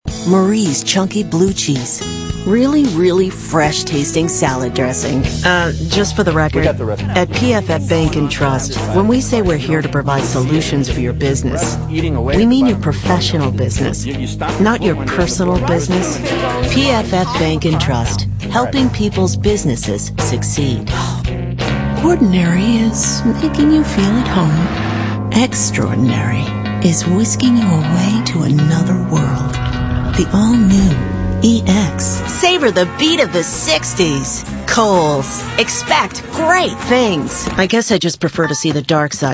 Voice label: female, late20s mid30s contemp

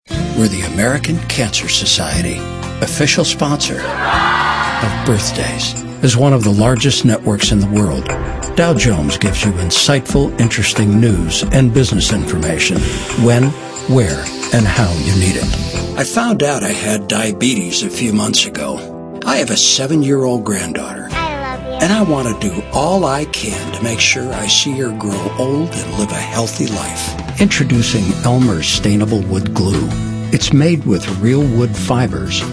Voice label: male, mid30s mid40s warm

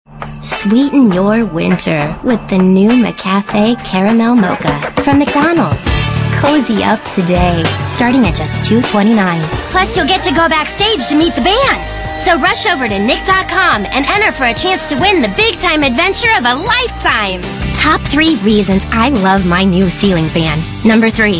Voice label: natural character, female

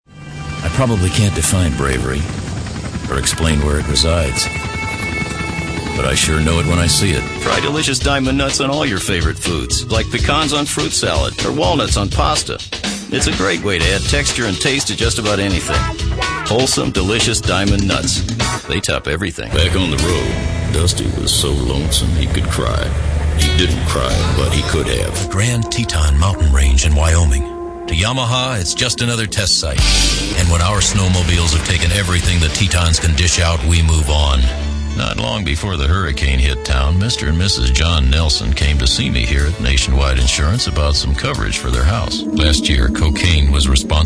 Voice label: male, mid30s-mid40s, warm

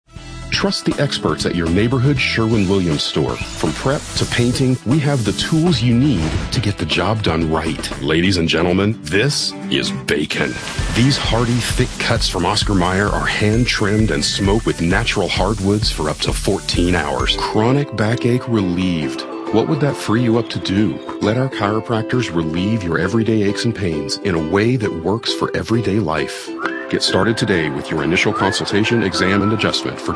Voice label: male, mid30s mid40s warm

